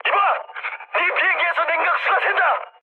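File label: Korean damaged water mood high, speech; War Thunder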